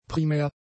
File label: "primär", German; adjectives